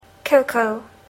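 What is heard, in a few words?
"cocoa", English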